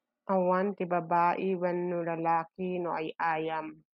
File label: Ilocano